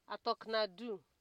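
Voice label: "atoknado", Nigerian Pidgin, female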